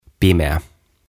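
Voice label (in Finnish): "Pimeä", suomeksi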